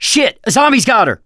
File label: hunter pounced, survivor voice; mechanic; producer